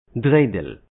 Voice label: "Dreidel", Hebrew